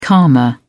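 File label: "karma", British English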